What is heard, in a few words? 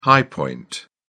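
"high point", English, British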